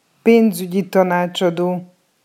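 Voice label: "penzugyi tanacsado", Hungarian pronunciation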